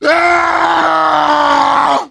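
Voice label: pain critical death; Heavy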